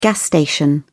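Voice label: "gas station", British English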